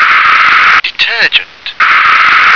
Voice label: "detergent", English

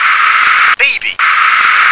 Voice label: "baby", English

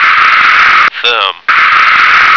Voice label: "thumb", American

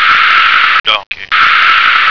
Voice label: "donkey", American

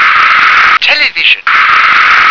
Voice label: "television", English

